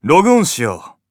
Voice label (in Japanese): ログオン, PCシステムボイス